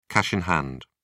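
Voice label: "cash in hand", British English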